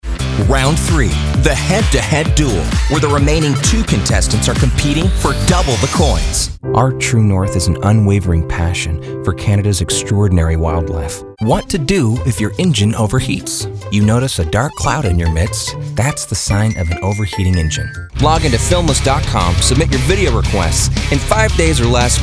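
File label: US English, Mix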